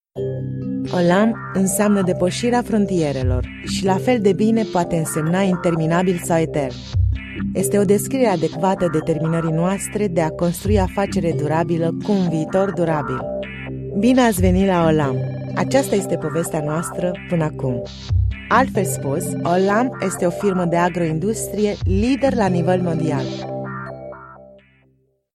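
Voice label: Romanian, female, corporate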